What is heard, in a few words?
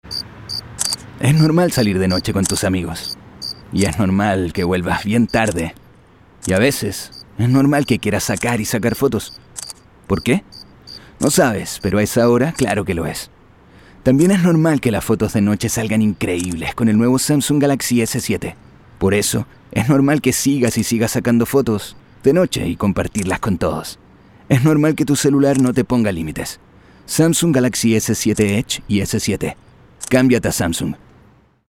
Radio; SAMSUNG Galaxy S7 Normal